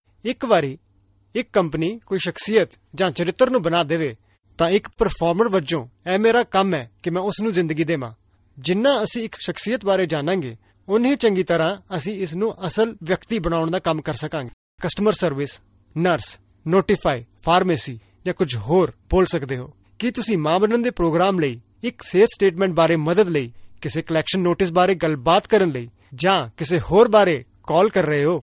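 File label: Punjabi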